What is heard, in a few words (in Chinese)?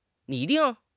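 "拟定", Chinese; HSK6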